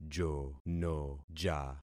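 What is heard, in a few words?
"jonoja", concatenated syllables; Affixing experiment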